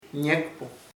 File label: who, chamacoco; quiEn